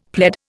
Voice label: "pled", Indian; Dictionary